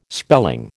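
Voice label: "spelling", American English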